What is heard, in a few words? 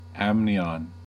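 "amnion", US English; pronunciations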